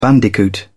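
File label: "bandicoot", British English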